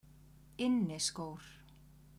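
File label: "inniskor", Icelandic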